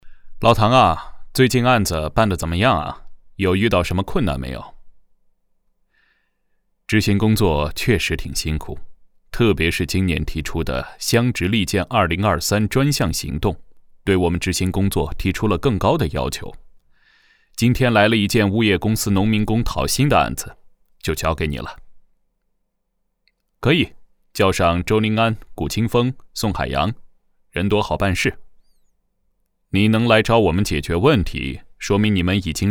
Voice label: 对口型 台词配音 试音; 追薪; 侯局

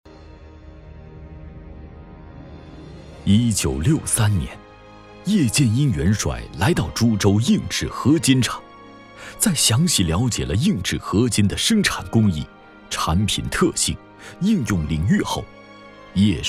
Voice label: 男 工业企业宣传

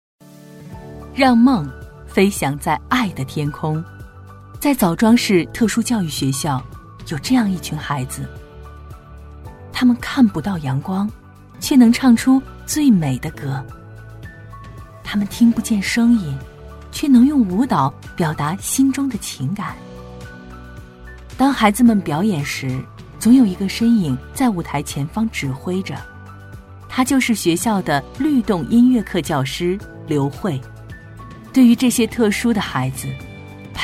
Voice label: 女 宣传片; 特殊教育学校

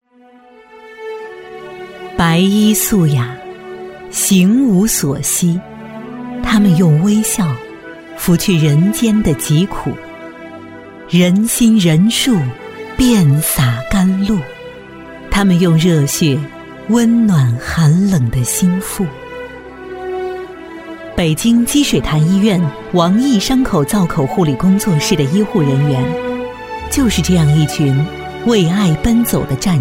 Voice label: 女 电视专题纪录片 医疗改革; 第一集

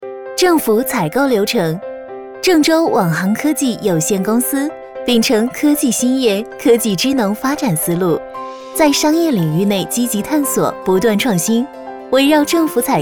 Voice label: 女, 解说; 网航

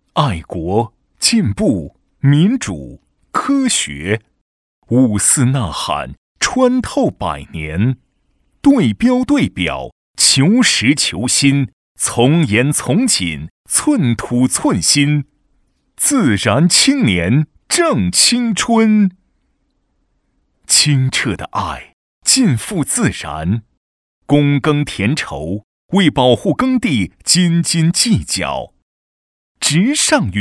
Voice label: 男 自然青年 正青春

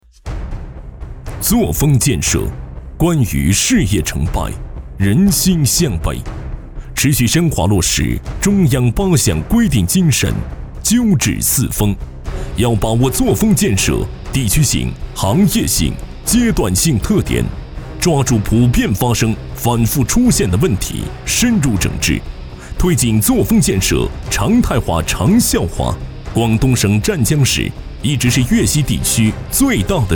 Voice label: 男 专题 肃穆严谨 反腐贪污

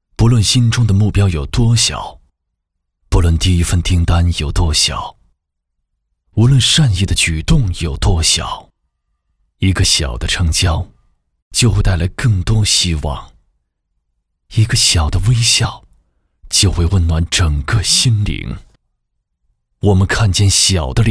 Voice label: 男 走心独白 磁性 广告; 阿里巴巴

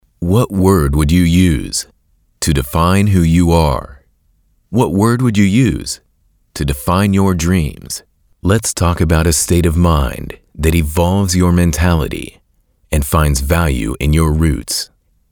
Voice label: 外籍英男 干音 深沉

